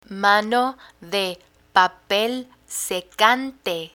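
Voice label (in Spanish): "mano de papel secante", Spanish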